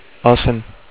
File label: "osem", Bulgarian